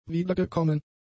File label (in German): "wiedergekommen", German; adjectives